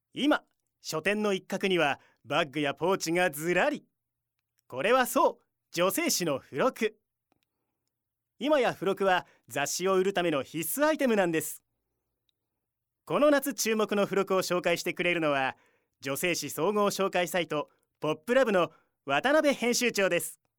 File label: narration